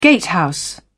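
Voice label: "gatehouse", British English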